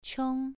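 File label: "qiong", Mandarin Chinese syllable, second tone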